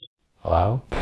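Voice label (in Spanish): Voice Acting, Spanish, female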